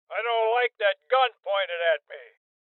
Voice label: Voices Hostage Taken Male Old; Rebel Cops